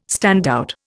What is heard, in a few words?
"standout", Indian